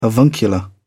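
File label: "avuncular", British English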